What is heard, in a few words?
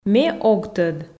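Komi, female; ме ог тӧд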